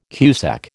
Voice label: "cusec", American

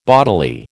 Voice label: "bodily", American

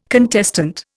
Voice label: "contestant", Indian

